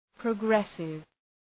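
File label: "progressive", English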